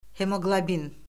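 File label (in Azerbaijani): "hemoqlobin", Azerbaijani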